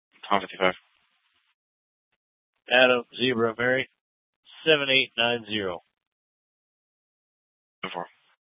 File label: Dispatch; Scottsdale; Sctdal PD Thompson Peak high